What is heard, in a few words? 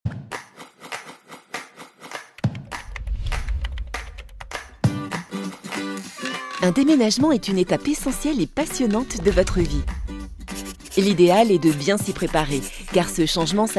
corporate; brugel